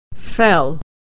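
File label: "fell", American English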